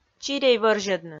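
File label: "чирей вӧрзьӧдны", Komi, female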